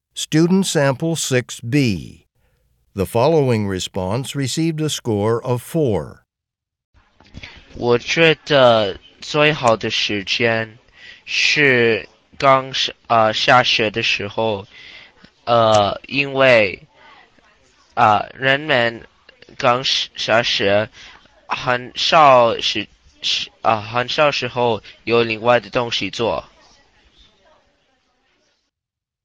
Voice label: chinese conversation; Conversation 6 Sample B (Score of 3)